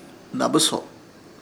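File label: "Dabɨso", Chamacoco word, provista; Ishir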